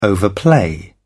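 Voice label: "overplay", British English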